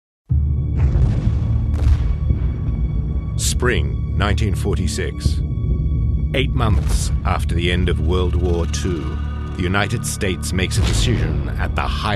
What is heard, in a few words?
documentary